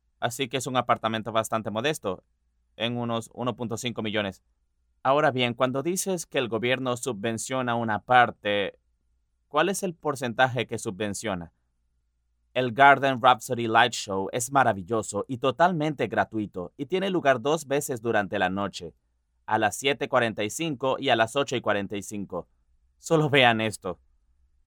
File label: locutor espanol neutro spanish american voiceover